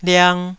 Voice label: "liang2", Taiwanese syllable